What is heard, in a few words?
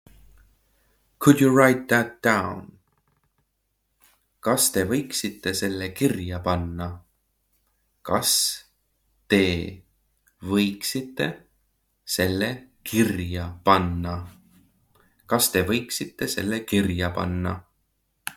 Could you write that down, Estonian phrasebook; the talking estonian phrasebook